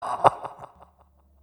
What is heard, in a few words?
failure, announcer; tf2cciv; open fortress